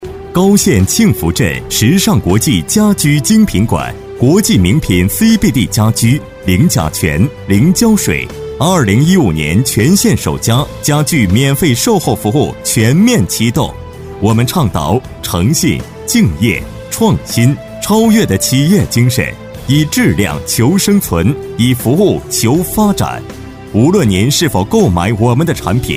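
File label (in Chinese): 广告, 男; 国际名品CBD家居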